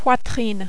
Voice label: "poitrine", French

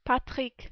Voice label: "Patrick", French

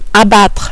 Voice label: "abattre", French

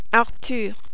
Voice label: "Arthur", French

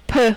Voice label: "peu", French

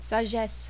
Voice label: "sagesse", French

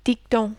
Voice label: "dicton", French

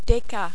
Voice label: "deca", French

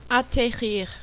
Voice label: "atterrir", French

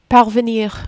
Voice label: "parvenir", French

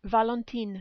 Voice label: "Valentine", French

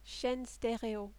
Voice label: "chaine stereo", French

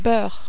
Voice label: "beurre", French

